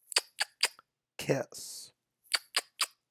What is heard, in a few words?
Kiss pronunciation